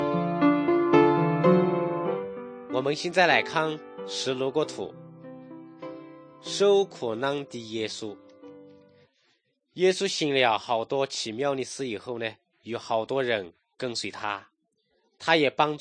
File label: good news shan, drama